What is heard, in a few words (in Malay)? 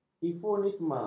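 "eufemisme", Malay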